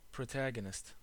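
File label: "protagonist", US English; pronunciations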